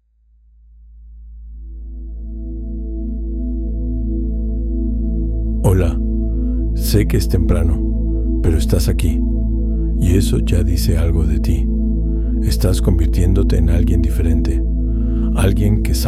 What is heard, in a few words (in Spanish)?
alarma masculino